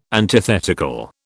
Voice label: "antithetical", British

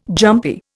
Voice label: "jumpy", Indian

